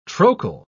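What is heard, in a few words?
"trochal", British English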